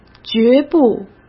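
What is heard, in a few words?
"决不" Mandarin Chinese; hsk; chinese-flashcards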